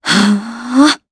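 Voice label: Vox Casting Japanese; Isolet; kings raid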